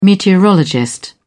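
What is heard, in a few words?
"meteorologist", English, British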